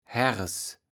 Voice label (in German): "Haeres", Platt